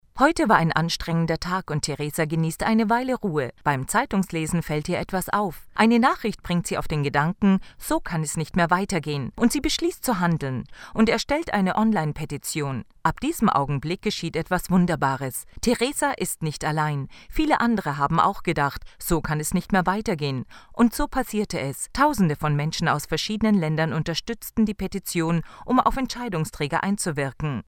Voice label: locutora alemana german voiceover native; German voice talent

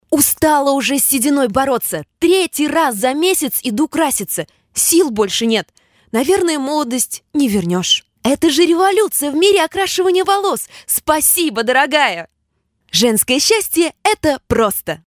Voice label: russian voice over locutora rusa

